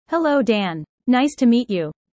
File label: user fast; Animal friends